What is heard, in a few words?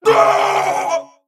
Male Mech Death